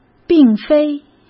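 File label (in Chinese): "并非", Mandarin; chinese-flashcards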